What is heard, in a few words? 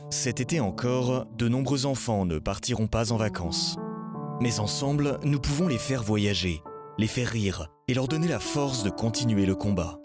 foreigner